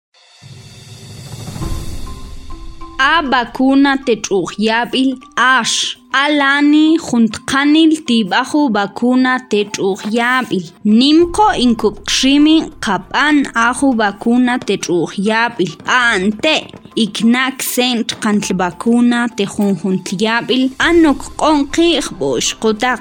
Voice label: SPOT MAM